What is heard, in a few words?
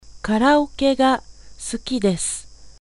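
Japanese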